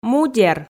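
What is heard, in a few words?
"мудер", Komi, female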